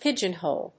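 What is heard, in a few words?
"pigeonhole", English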